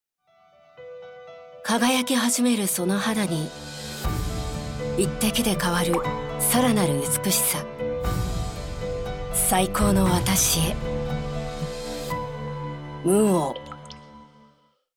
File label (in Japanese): クール・力強い 化粧品; studio; VOICE SAMPLE